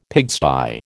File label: "pigsty", American